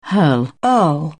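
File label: "hurl", French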